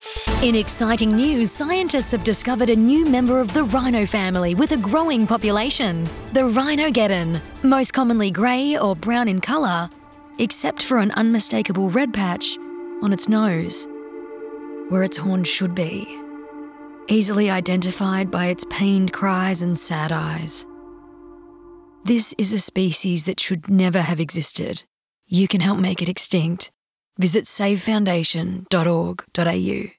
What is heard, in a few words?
Radio